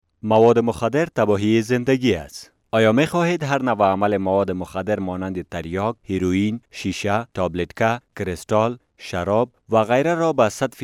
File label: dari male